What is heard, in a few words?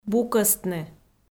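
"букӧстны", Komi, female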